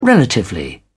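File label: "relatively", British English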